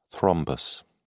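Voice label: "thrombus", British English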